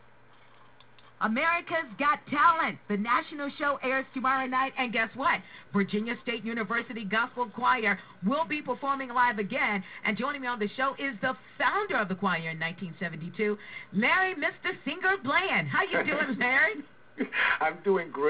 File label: phone interview